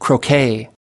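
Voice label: "croquet", US English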